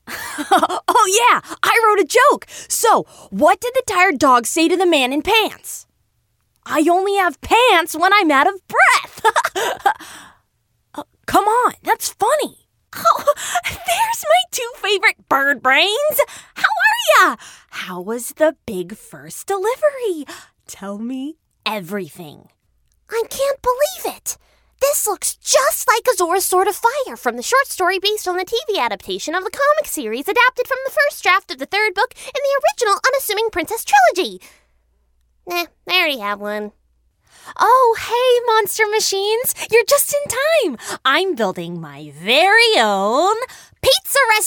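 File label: Animation, vo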